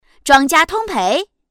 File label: all lose, girl